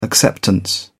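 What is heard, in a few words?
"acceptance", English, British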